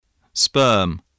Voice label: "sperm", English voice